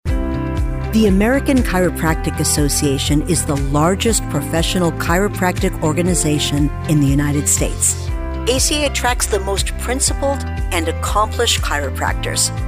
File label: Narration Long